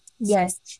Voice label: Voice Acting, es, F0